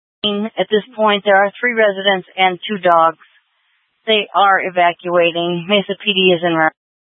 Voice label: Fire Dpts VHF 154.800 Patch, scanner; TOPAZ PD (TRWC); Thompson Peak